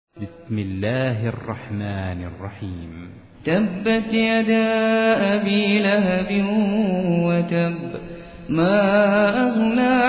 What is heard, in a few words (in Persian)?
ترتیل; سوره مسد